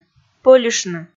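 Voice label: "пӧльыштны", Komi, female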